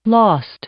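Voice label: "lost", English; adjective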